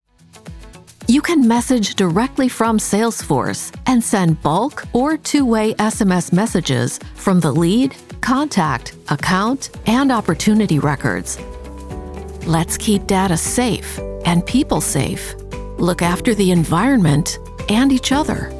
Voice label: Corporate Narration